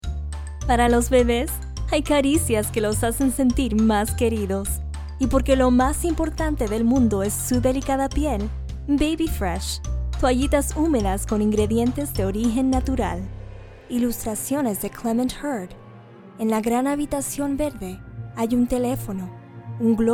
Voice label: Spanish, voice over